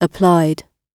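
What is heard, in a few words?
"applied", British English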